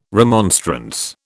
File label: "remonstrance", British